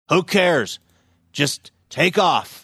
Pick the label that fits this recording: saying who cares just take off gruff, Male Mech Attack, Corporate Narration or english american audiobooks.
saying who cares just take off gruff